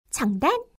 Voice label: Chungdan Voice; RandomGFGoStop